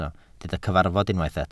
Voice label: "cyfarfod" Welsh

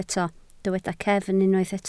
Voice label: "cefn", Welsh

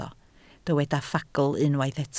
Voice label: "ffagl", Welsh

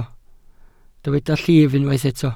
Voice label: "llif", Welsh

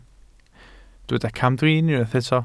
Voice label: "camdrin", Welsh

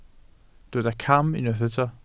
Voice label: "cam", Welsh

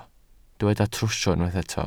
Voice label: "trwsio", Welsh

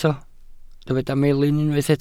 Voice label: "melin", Welsh

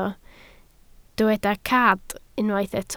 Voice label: "cad", Welsh

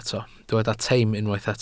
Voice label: "teim" Welsh

